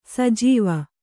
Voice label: "ಸಜ್ಜೀವ", Kannada

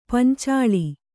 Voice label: "ಪಂಚಾಲ", Kannada

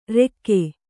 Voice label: "ರೆಕ್ಕೆ", Kannada

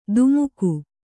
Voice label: "ಧುಮುಕು", Kannada

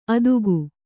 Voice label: "ಅದುಗು", Kannada

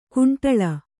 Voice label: "ಕಂಡಾಳು", Kannada

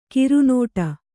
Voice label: "ಕರ್ನಾಟ", Kannada

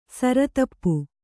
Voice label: "ಸಿರಿತುಪ್ಪೆ", Kannada

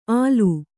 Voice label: "ಆಲು", Kannada

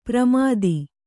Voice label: "ಪ್ರಮಾಥಿ", kannada

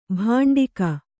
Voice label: "ಭಾಂಡಿಕ", Kannada

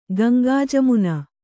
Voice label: "ಗಂಗಾಜಮುನ", Kannada

